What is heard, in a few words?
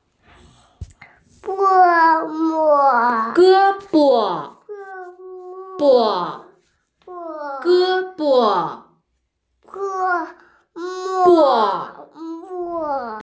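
"gē bo", Mandarin Chinese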